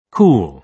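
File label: "cool", British English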